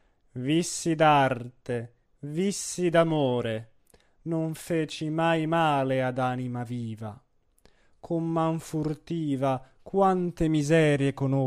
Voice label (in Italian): espressivo; Tosca